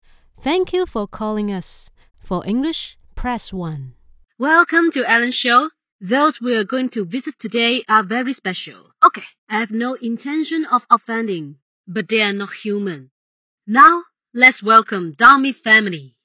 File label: English; Personal soundproof booth in Manchester, UK; Microphone: Blue USB Studio Mic